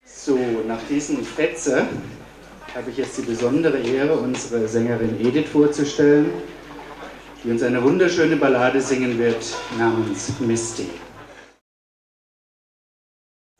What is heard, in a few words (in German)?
Ansage